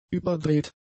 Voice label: "überdreht", German; adjectives

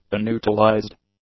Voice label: "unutilized", english; adjectives

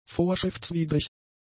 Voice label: "vorschriftswidrig", German; adjectives

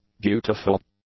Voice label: "beautiful", English; adjectives

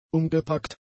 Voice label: "umgepackt", German; adjectives

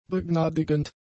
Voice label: "begnadigend", German; adjectives

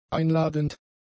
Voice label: "einladend", German; adjectives

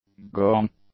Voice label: "gong", English; nouns

